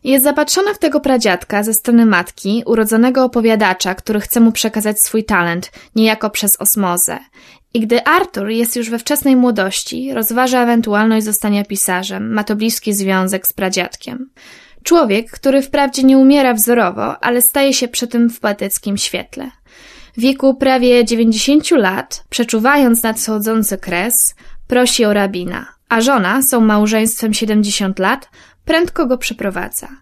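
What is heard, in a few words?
Polish, E-learning; GoLocalise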